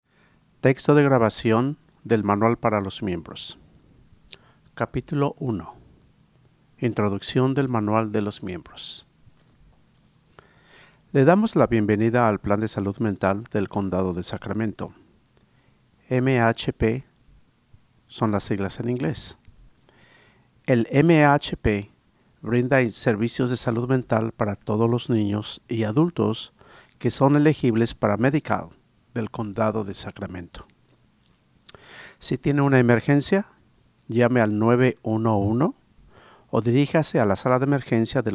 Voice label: Spanish; Member Handbook